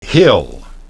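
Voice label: "hill", English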